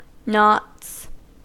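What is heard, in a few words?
"knots", American English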